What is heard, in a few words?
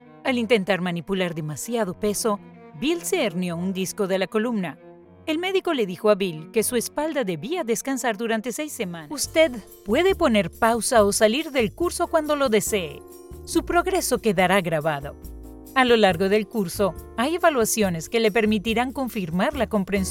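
Spanish Latin American, E-Learning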